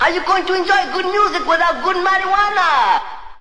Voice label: good music good marijuana, SPOKEN WORD, Rastafarian